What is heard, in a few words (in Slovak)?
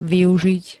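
"využiť", Slovak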